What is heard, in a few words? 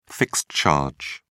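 "fixed charge", British English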